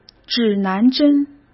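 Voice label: "指南针", Mandarin Chinese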